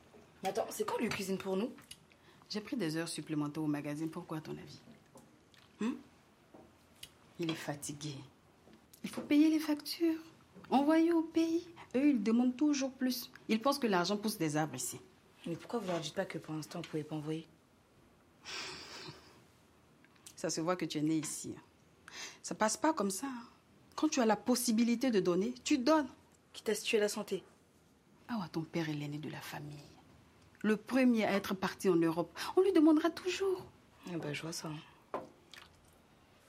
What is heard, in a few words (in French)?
Dialogue mère fille